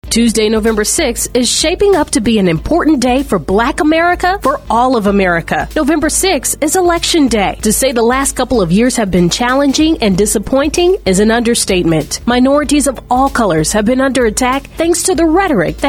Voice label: FEMALE SPOT; GOTV